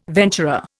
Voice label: "venturer", Indian